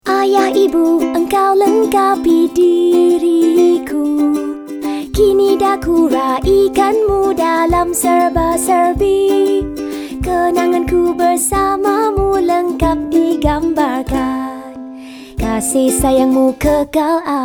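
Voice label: Malay; selphy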